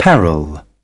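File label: "peril", British English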